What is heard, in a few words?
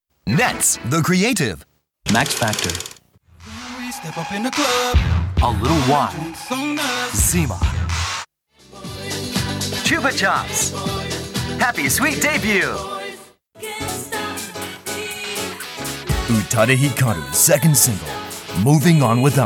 tv cm english